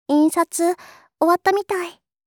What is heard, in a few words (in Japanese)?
印刷、終わったみたい, システムボイス; Windows等向けシステムボイス